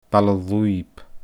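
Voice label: "Baile Lùib", Scottish Gaelic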